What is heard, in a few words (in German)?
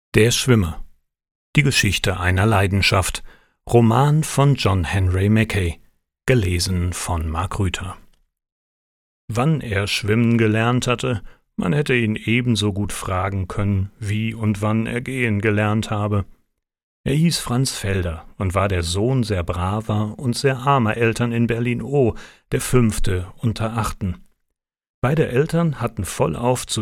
Hoerbuch; Der Schwimmer; Dokumentation